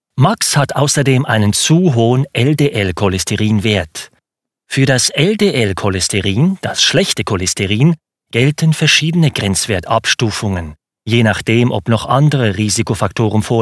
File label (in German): e-learning mix CH